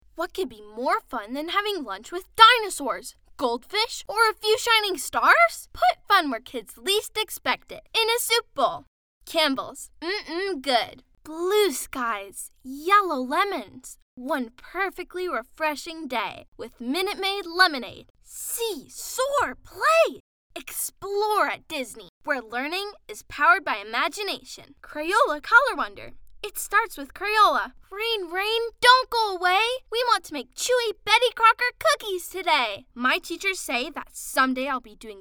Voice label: English Commercial